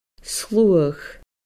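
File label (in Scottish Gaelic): "sluagh", Scottish Gaelic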